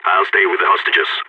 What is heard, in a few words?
going to guard hostages, balkan; Counter-Strike Global Offensive